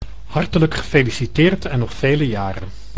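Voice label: "Hartelijk gefeliciteerd en nog vele jaren", Dutch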